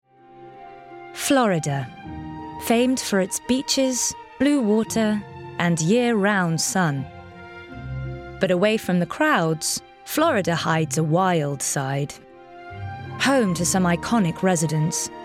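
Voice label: documentary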